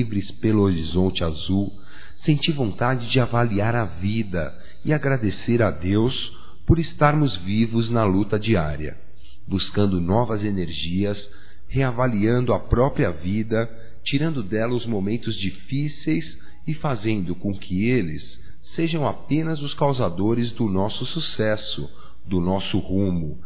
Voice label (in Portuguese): AMIZADE, masc; Amizades; Telemensagens 2017